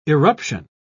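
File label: "irruption", British English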